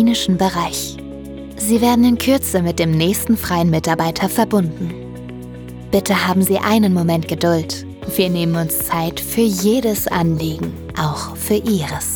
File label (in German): Aerzte Service Steil Begruessung mit Wartezeitansage